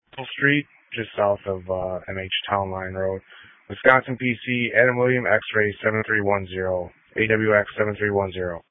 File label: Sheriff Dispatch